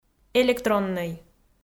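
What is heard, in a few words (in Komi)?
"электроннӧй", Komi, female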